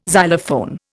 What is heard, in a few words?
"xylophone", Indian